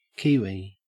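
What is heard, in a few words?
"kiwi", Australian English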